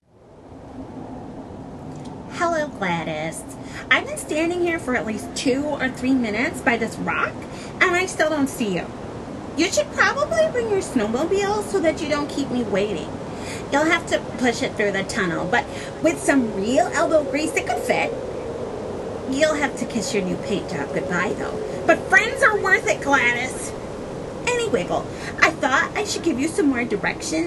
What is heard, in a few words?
Voice Memo; Writeria